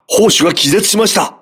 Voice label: voice message gunner contused, crew ships japanese